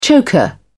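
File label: "choker", British English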